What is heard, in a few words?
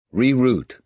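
"re-route", American English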